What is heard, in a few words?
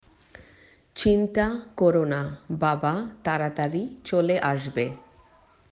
Bangla